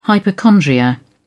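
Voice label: "hypochondria", British English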